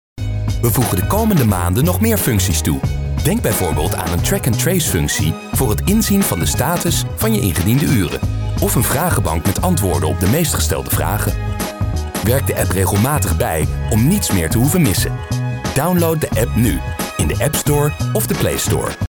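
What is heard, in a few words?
Dutch, male, e-learning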